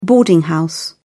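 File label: "boarding house", British English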